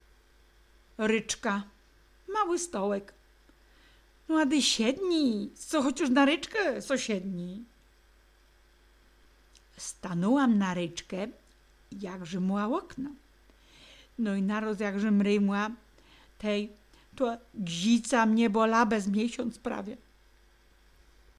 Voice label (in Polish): ryczka, gwarowy